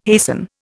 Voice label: "hasten", Indian